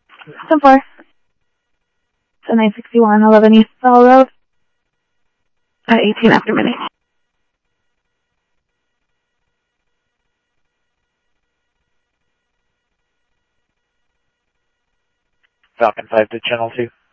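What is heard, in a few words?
Phoenix police department, scanner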